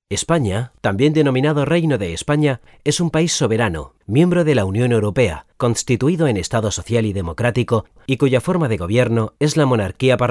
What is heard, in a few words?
male spanish castilian